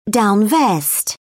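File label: "down vest", British English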